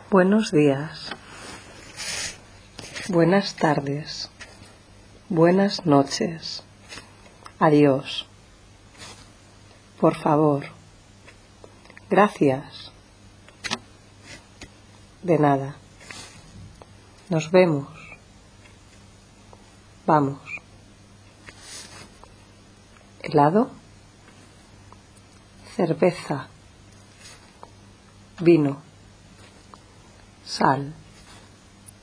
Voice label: Spanish